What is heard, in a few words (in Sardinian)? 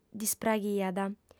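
"dispraghiat", female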